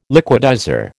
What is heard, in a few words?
"liquidizer", American